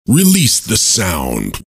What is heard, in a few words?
ID (male); RTS